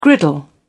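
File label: "griddle", British English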